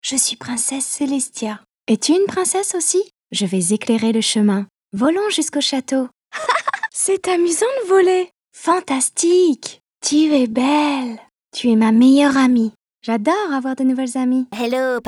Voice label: female french animation and impersonation comedy compilation; voices